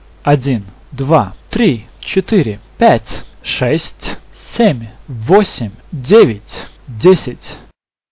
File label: russian numbers